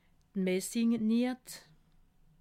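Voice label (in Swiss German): "messingniet", Haut-Rhin; livre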